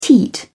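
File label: "teat", British English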